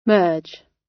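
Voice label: "merge", British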